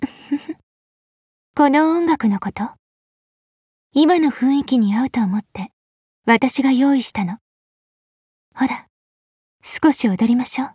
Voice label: Daily, JP; OTs-14

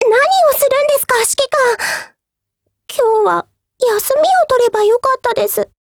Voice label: DIALOGUE, JP; USPCompact

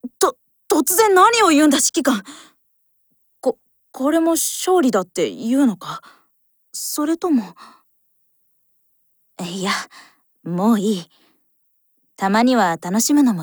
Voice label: SOULCONTRACT, JP; SVT38

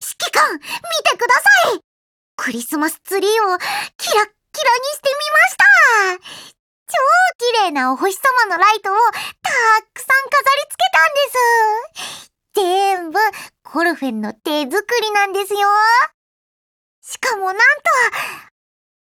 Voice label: Christmas, JP; Colphne

